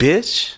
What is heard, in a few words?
"bitch", expletives